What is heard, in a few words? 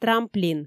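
"трамплин", Komi, female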